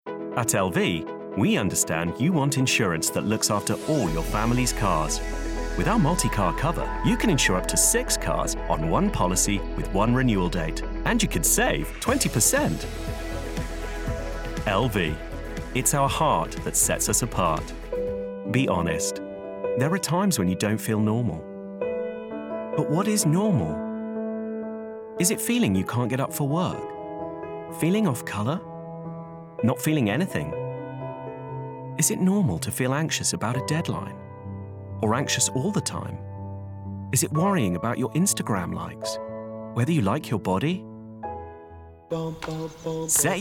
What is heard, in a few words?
Commercial Montage